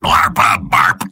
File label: soldier robot; mvm